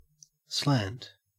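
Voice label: "slant" English, Australian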